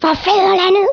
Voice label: "Kamikaze", Danish